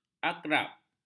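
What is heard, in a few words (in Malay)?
"akrab", Malay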